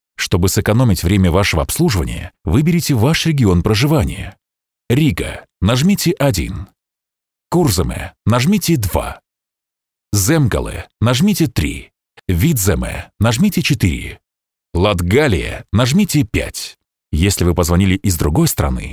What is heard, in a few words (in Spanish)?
Masculino